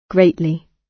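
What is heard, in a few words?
"greatly", British English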